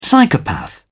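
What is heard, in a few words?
"psychopath", British English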